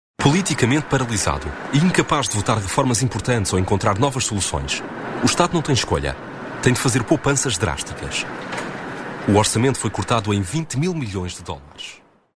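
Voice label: Portuguese, male, narration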